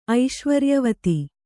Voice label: "ಐಶ್ವರ್ಯವತಿ", kannada